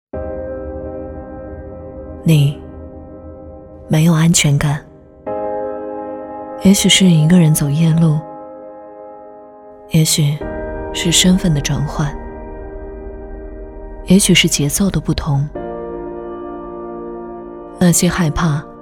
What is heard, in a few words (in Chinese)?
冷淡; 白灭士杀蟑胶饵